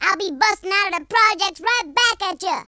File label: "i'll get you", Gangsta; speech